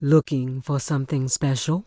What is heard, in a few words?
Tauren Female Official Vendor; NPC